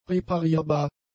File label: "reparierbar", German; adjectives